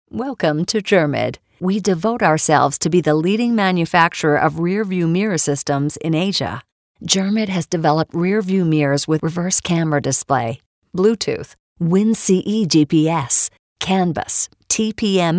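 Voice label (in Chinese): 女英大音-加拿大-英语女生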